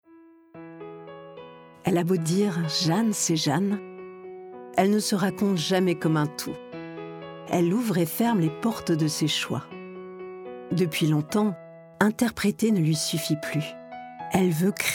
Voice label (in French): e learning cinéma